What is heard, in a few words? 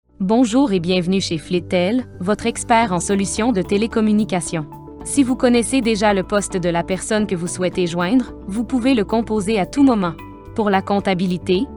NATURAL VOICE MUSIC